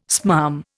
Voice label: "smarm", Indian